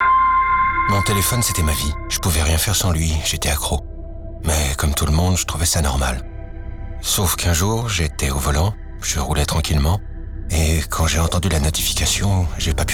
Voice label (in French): securite routiere spot; distracteurs